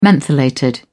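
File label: "mentholated", British English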